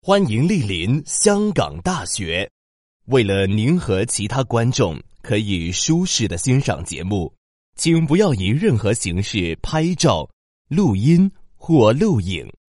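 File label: Chinese Mandarin male; voice over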